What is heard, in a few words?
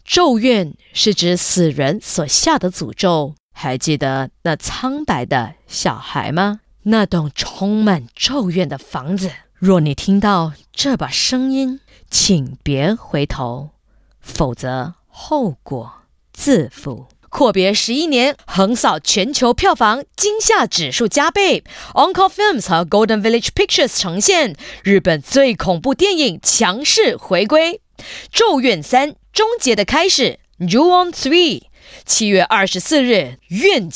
Mandarin, China Female; Juon The Movie